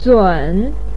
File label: "zǔn", voice pinyin